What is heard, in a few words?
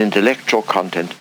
LoFi Phrases, LoFi Vocals; Vengeance Samples